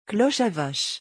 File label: "cloche a vache", French; vocabulary; musical instruments